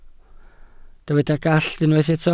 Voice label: "gallt", welsh